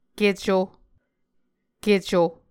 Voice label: "keco", Muscogee